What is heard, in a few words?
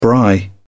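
"braai", English, British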